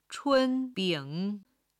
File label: "chun1 bing3", Chinese